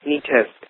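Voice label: "neatest", English, American accent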